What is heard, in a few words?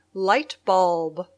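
"lightbulb", English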